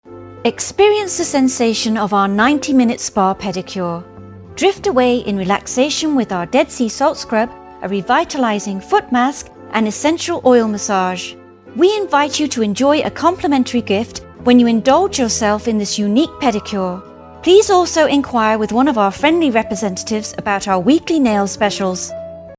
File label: beauty salon spa, britishvoices; audio on hold